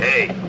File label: "hey", male